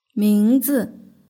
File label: "mingzi", Chinese; hsk6